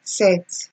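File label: "set", Nigerian Pidgin, female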